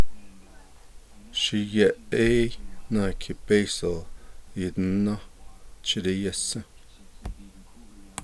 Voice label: My son is standing guarding the car for two doll, Navajo